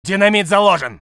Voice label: voice sov infantry

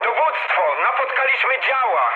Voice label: damaged aa mood high, speech pol; War Thunder

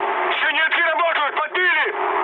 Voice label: damaged aa, mood med, speech ru; War Thunder

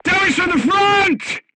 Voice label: voice message shot front, crew tanks portuguese

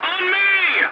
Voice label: voice message follow me, english; War Thunder

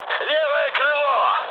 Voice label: left wing, mood med, speech ru; War Thunder

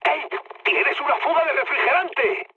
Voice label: damaged water, mood high, speech; War Thunder

